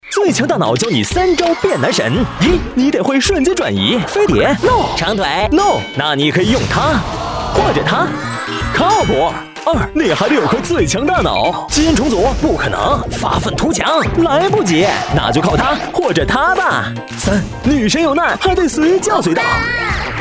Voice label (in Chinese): 广告; 节日; 飞碟说最强大脑